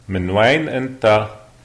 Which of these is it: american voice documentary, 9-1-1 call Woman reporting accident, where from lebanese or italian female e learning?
where from lebanese